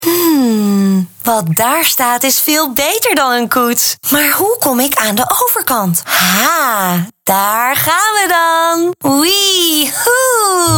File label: Cartoon; Barbie